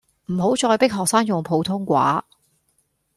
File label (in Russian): Гонконгский; commonvoice